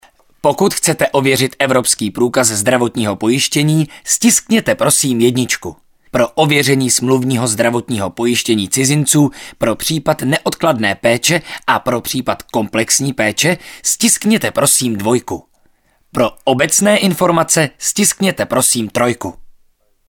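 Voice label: male Czech IVR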